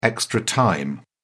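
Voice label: "extra time", British English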